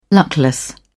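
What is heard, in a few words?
"luckless", British English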